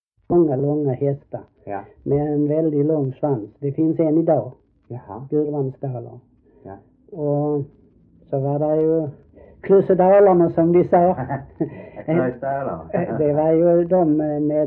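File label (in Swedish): Äspö Skåne, Svenska sagor dialekter; Folkminnen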